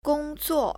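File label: "gongzuo", Chinese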